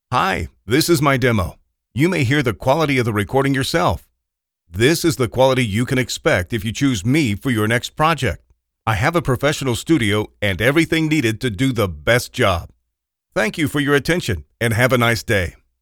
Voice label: english male